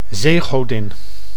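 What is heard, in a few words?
"zeegodin", Dutch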